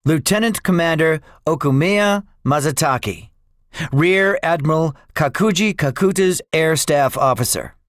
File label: debriefing, english; guadalcanal; War Thunder